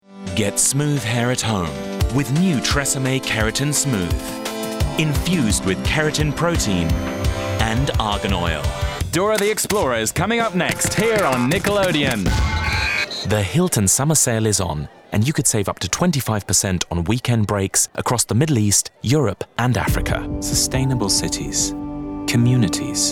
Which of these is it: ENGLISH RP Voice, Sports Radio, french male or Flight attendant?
ENGLISH RP Voice